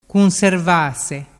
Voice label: "cunservase", dialettu